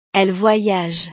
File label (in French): "elle voyage", frances